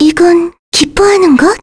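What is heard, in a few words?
Vox Victory, Korean; Jane; kingsraid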